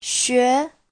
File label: "xue2", Chinese